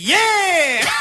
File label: "yeah" girls